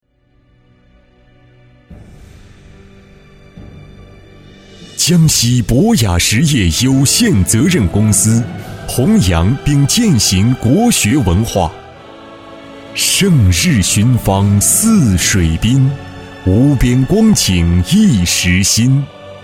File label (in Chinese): 专题 大气深沉 宣传片; 朱熹纪念馆; 雅博实业